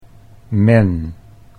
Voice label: "men", Dutch